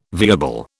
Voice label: "viable", British

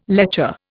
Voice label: "lecher", Indian

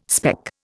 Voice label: "speck", Indian

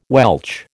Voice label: "Welch", American

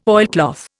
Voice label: "oilcloth", Indian

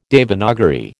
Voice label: "Devanagari", American

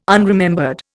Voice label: "unremembered", Indian

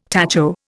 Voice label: "tacho", Indian

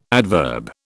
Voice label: "adverb", British

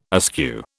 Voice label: "askew", British

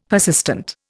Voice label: "persistent", Indian; Dictionary

